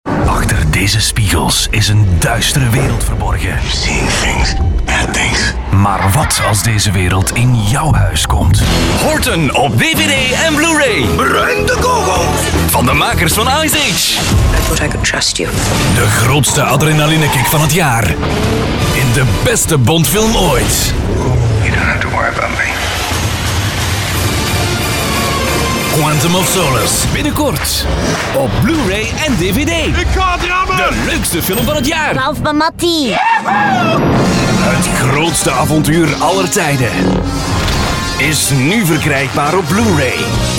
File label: Flemish Trailer